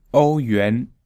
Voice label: "ou yuan", Mandarin